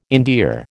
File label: "endear", American